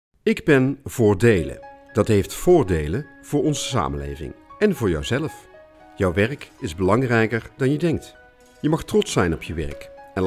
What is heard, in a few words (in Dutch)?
voiceover intro; Ministerie IenW